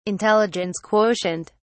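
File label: "intelligence quotient", British English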